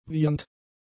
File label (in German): "wiehernd", German; adjectives